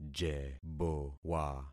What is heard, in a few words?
"jebowa", concat syllables; Affixing experiment